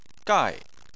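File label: "gai" fourth tone, Chinese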